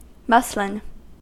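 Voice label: "muslin", US English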